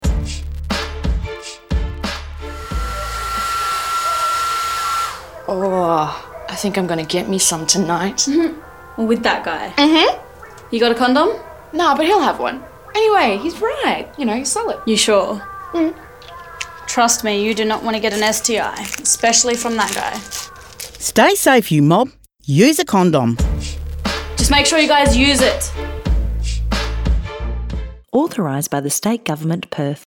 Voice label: Aboriginal sexual health female version radio; 30 sec